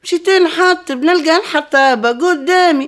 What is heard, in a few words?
OLD WOMAN, Arabian Traditions